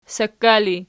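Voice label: sakka ergative, Archi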